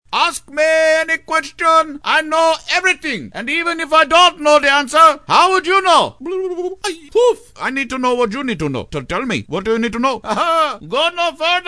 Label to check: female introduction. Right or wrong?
Wrong